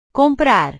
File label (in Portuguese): "comprar", portugues; portugues/verbos